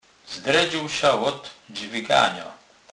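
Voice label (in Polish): "zdredzec", Kurpie dialect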